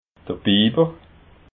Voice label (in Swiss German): "biber", alsace